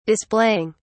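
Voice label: "displaying", British English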